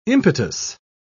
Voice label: "impetus", pronounce